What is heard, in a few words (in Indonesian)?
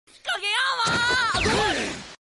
Bahasa jepang lucu notification